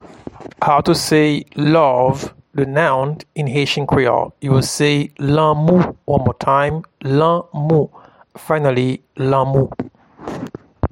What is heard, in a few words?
Love in Haitian Creole, Lanmou